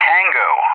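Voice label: "Tango", English; JTAC; Alphabet; DCS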